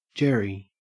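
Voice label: "jerry", Australian English; pronunciations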